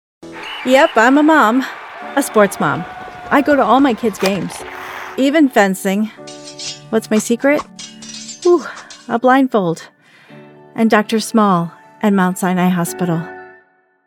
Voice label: Nurturing Warm; Mt. Sinai

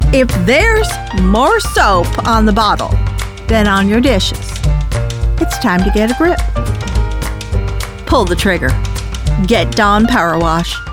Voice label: Character Funny; DawnPowerwash

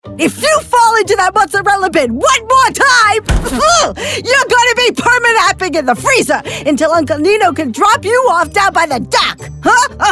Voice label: Mom Boss Overbearing Frustrated

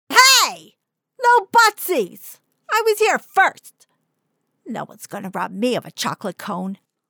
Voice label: Little Boy Pushy Snarky

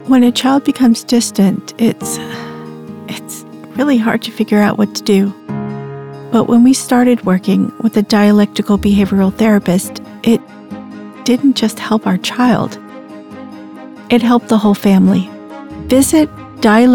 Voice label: Healthcare Real Person; DBT

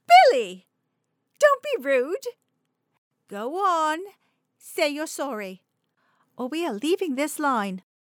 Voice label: British Woman Displeased Authoritative

